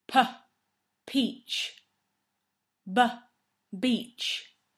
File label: P and B pronunciation